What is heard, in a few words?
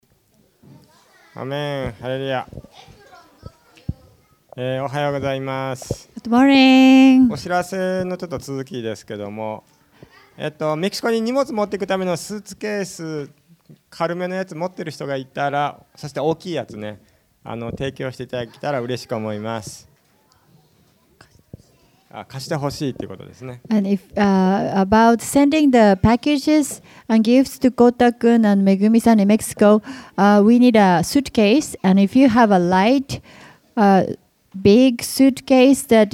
announcement; sunday message 2016